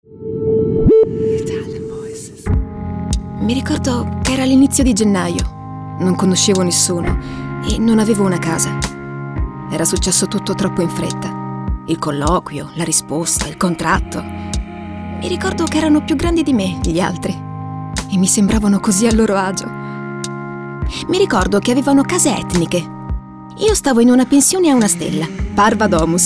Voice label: ITALIAN VOICES; Naked Reel